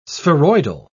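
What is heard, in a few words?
"spheroidal", British English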